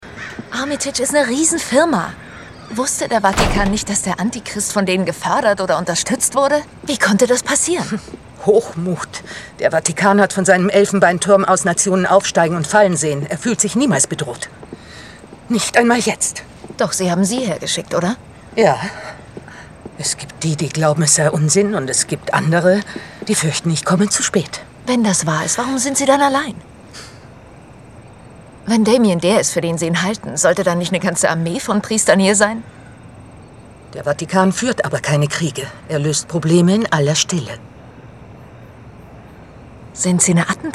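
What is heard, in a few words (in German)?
acting, Lebendig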